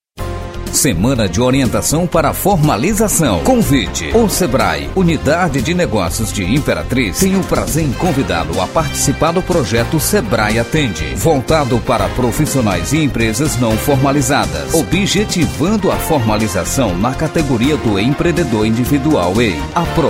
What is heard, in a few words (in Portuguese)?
locução padrão locutor